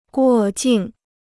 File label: "过境", Chinese